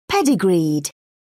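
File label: "pedigreed", English, British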